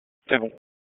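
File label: Police Southeast District Dispatch; Raleigh; wake p25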